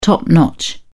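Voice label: "top-notch", British English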